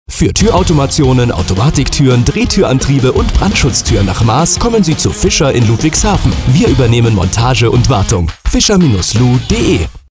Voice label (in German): Radiospot; Fischer GmbH